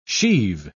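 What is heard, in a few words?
"sheave", British English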